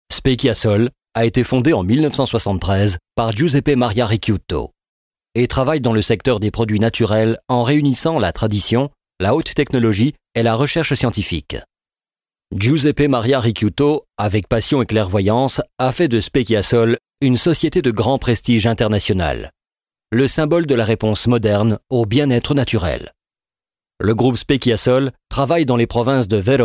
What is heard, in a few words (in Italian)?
francese